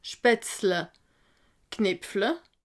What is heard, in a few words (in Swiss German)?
"spatzle", alsace